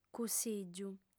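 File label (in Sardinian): "cussìgiu", female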